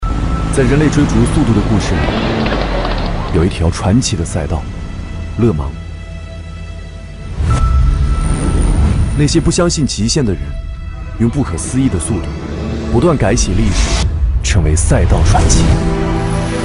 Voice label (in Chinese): 男 素人 理性权威; 真我GT-Neo3